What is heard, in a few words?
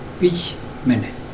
this pig, mokilese